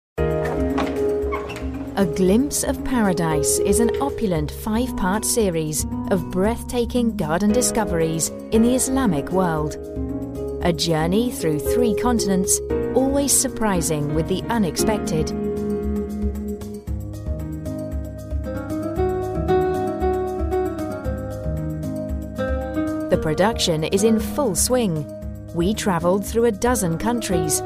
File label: english british documentaries